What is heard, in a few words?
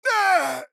Hurt